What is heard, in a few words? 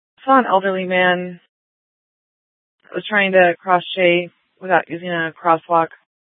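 Scottsdale PD North Dispatch; Nth Dispatch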